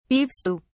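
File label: "beef stew", English, British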